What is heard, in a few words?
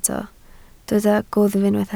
"gwddf", Welsh